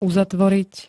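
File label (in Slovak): "uzatvoriť", Slovak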